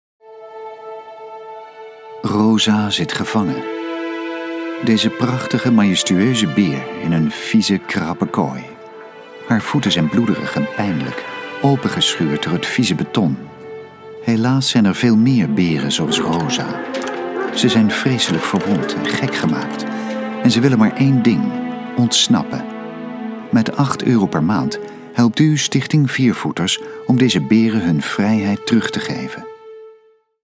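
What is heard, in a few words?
Dutch commercial; GoLocalise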